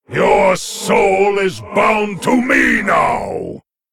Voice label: Male Lich, Cone of Cold